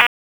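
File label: Raleigh Police North District Tactical; wake; p25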